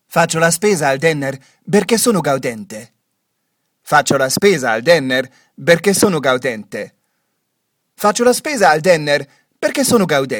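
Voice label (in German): Sprechprobe Italian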